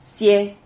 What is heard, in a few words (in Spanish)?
"cié", Silabas